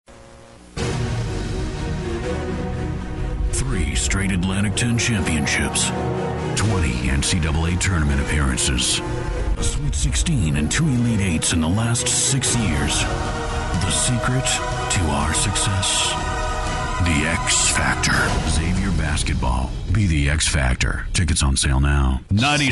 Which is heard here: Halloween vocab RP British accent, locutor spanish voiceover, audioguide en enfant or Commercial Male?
Commercial Male